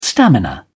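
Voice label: "stamina", British English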